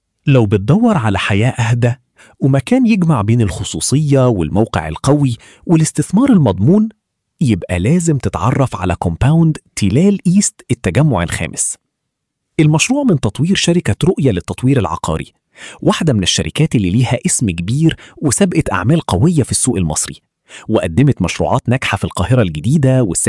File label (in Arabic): فويس اوفر كمبوند; كمبوند تلال ايست التجمع الخامس